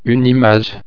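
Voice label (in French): "image", French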